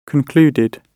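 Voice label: "concluded", British English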